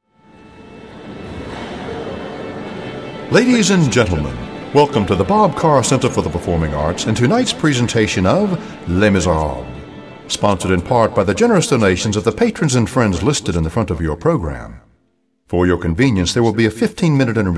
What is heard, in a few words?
VoiceOver announcer